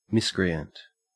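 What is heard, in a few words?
"miscreant", Australian English; pronunciations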